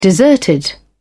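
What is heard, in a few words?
"deserted", British English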